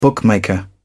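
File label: "bookmaker", British English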